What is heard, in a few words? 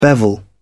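"bevel", British English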